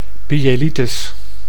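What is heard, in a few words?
"pyelitis", Dutch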